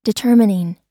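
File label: "determining", US English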